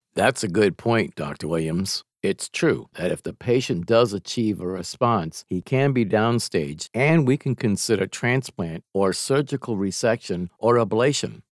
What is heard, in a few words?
MEDICAL EXPLAINING PROCEDURE